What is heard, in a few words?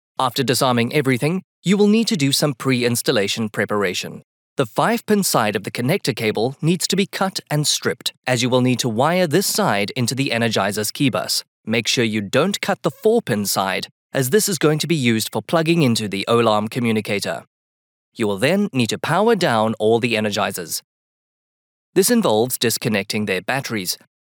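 Afrikaans male South African English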